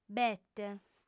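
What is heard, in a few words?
"bete", female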